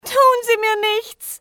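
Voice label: dont hurt, female; XBOX; civ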